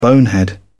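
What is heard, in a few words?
"bonehead", British English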